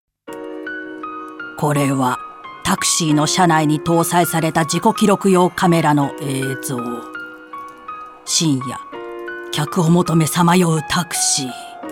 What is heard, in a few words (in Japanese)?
オカルト番組 かすれ低音 ゆったり 「心霊スポット」